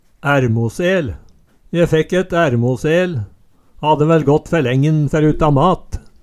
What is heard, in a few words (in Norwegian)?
"ærmosel", Numedalsmål